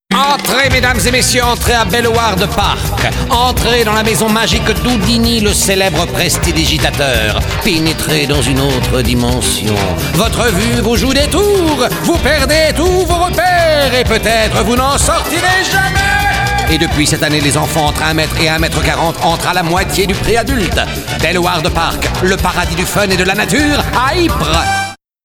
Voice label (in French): Voix bizarre Magicien